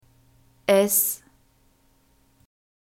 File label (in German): "s", German alphabet